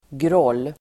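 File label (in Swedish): "groll", Swedish; Uttal: [grål:]; grudge; ovänskap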